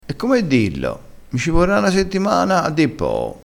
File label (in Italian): "e come dillo", crespinese